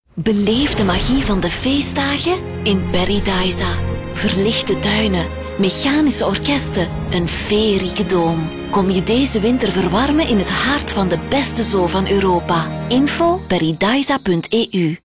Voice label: flemish commercial